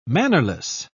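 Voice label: "mannerless", British English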